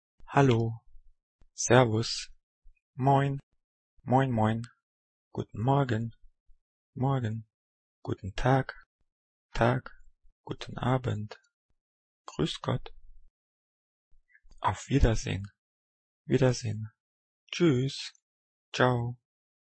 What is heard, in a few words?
German Vocabulary Greetings